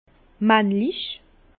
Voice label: "mannlich", Bas Rhin; vin; masculin

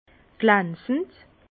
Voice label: "glanzend", Bas Rhin; vin; brillant

